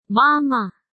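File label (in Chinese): "妈妈", Chinese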